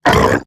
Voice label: Pain, Robot Large; Warframe; Eximus Corpus Robotic Large; Battle Barks

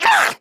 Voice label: Pain Grineer Jester; Warframe; Battle Barks

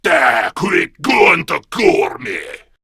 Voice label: Cover Me, Male

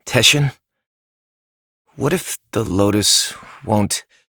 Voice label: Operator Voice; New War; Torpedo

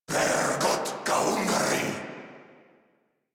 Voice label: Player Spotted Corrupted Grineer Male

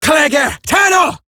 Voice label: Player Spotted, Female

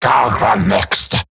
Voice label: Attack Eximus Grineer Male; Battle Barks

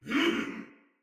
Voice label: Attack, Soldier Male; Battle; Barks; Dialog; Sinecure Soldier Male B

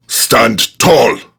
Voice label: Idle Barks Prime Grineer Male; Tau; Battle Barks

